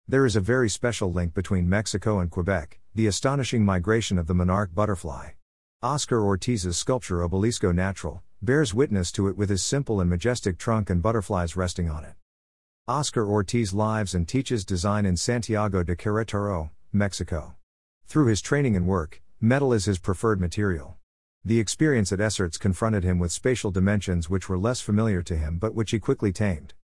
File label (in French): anglais